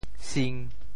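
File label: "sing1", Teochew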